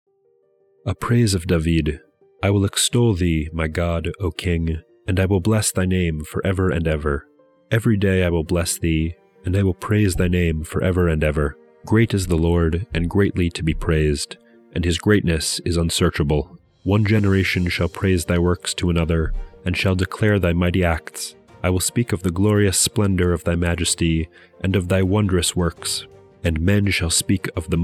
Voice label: poetry and prayer psalm english